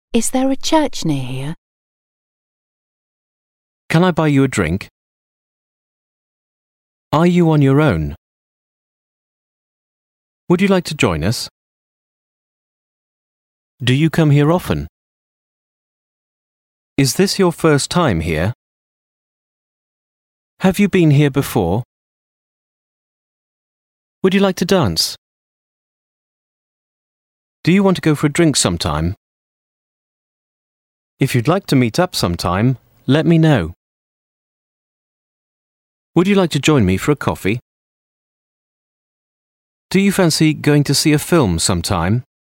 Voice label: English Sentences